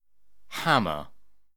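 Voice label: "hammer", British English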